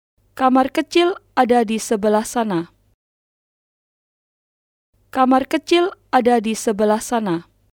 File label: The washroom is over there Indonesian